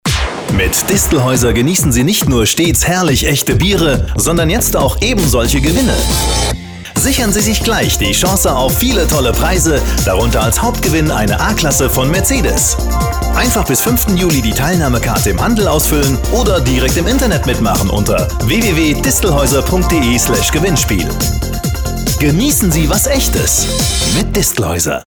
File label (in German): Funkspot Gewinnspiel; Distelhäuser